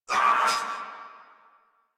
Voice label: threatened crewmen; Warframe; Corpus Crewmen; Crewman C

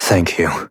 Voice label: Operator Voice, en; Warframe; New War

